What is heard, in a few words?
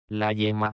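"la yema", Spanish; Y (o hie); Fonetica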